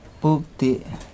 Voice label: Nawat palabras humo; pipil

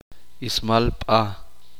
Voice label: uspanteko cabello

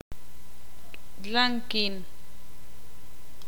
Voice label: "largo", ixil

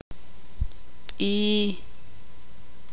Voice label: "nombre", ixil

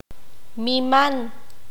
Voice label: grande, qanjobal

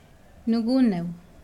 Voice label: Nawat palabras hijo; pipil

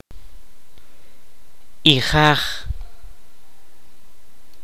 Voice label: "espalda", Kaqchikel

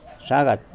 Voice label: Nawat palabras pasto; pipil

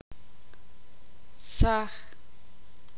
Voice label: "blanco", ixil

